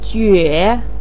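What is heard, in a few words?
"jue" third tone, chinese, voice pinyin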